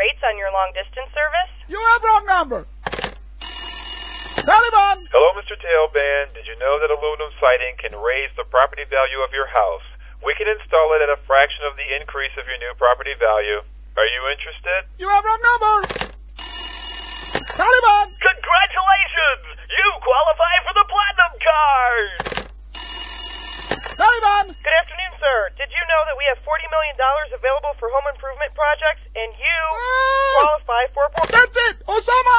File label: Answering Machine Joke; Taliban